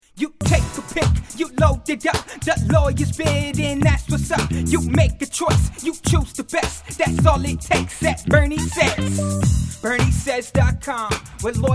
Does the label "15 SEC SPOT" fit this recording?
Yes